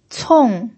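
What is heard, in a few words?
"cong" fourth tone, Mandarin Chinese pinyin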